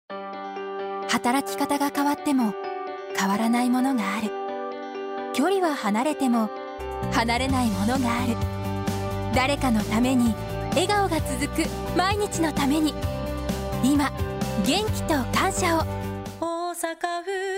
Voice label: radio; osaka